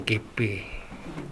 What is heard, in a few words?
"geppe", minamiyamagata